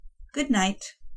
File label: eng greetings